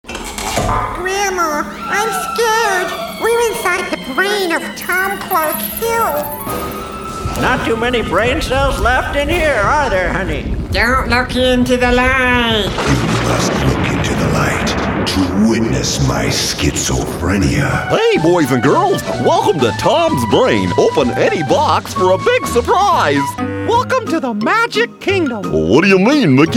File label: Animation